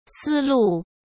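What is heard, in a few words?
"思路", Mandarin Chinese; hsk; chinese-flashcards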